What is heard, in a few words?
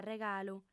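"regalu", female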